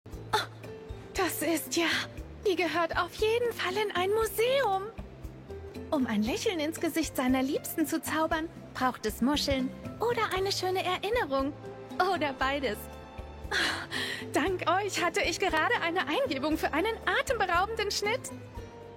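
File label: german video games, Female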